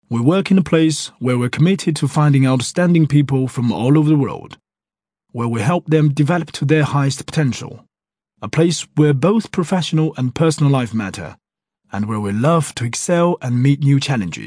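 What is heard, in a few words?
ZH AccEn, Chinese accented English; GoLocalise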